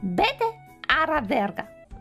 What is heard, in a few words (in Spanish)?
insultando y diciendo malas palabras en espanol; hololive sub espanol